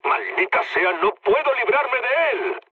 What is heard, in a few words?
aircraft damaged, mood low, speech; War Thunder